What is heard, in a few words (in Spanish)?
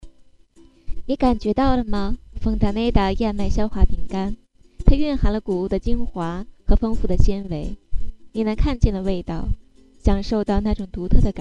locutora china; Locutoras de China